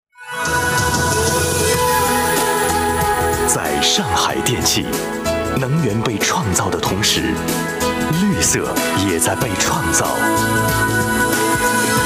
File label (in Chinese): 男, 国语男声配音; 上海电气